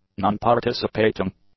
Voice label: "nonparticipating", english; adjectives